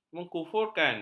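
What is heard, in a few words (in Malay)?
"mengkufurkan", Malay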